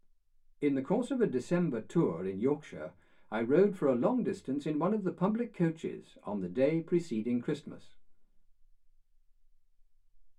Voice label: male speech english